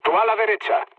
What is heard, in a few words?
right wing, mood low, speech; War Thunder